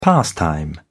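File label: "pastime", British English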